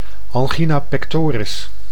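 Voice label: "angina pectoris", Dutch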